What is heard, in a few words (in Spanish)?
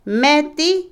propiedad, Otomí, mujer; Posesión; Vocabulary; INALI